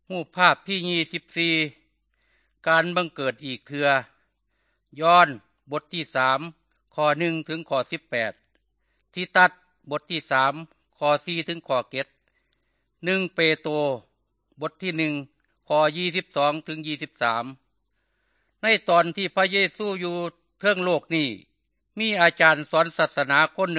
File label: good news thai northeastern